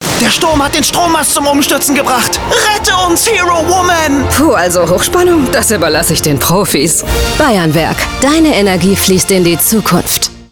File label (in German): Werbung; Bayernwerk